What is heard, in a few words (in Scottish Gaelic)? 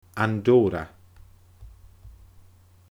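"Andorra", Scottish Gaelic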